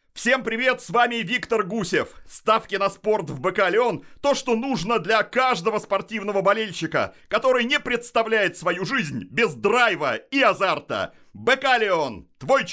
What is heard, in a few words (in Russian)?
REKLAMA